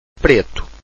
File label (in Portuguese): "preto", Portuguese